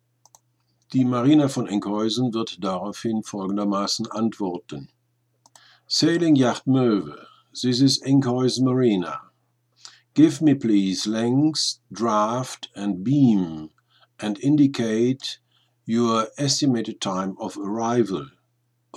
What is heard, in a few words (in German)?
Liegeplatz fuer Moeve Antwort von Enkhuisen, Seefunk; routine; short range certificate sprechfunkbeispiele nach imo vorgabe